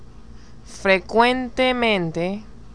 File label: "frequentemente", spanish